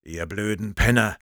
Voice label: Sniper taunts, de

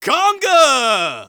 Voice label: taunt conga fun; Scout

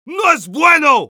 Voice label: Heavy negative vocalization, es

